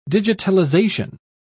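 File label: "digitalization", en, British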